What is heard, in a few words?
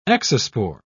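"exospore", British English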